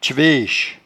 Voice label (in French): "tcheveche", patois jura